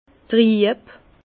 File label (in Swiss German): "trieb", Bas Rhin; vin; trouble